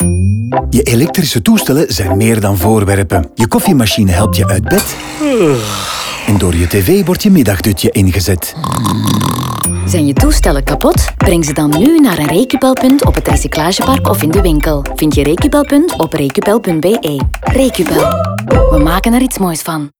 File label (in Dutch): radio nl music intro; recupel